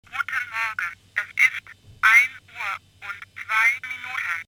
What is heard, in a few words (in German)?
Zeitansage